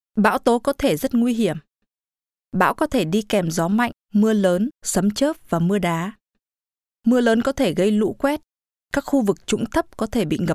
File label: vietnamese stormsafe audio